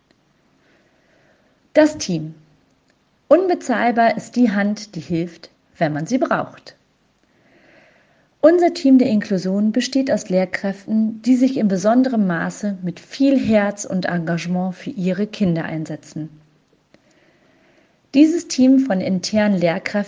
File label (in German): normale Sprache